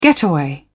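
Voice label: "getaway", British English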